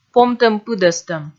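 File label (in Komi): "помтӧм-пыдӧстӧм", Komi, female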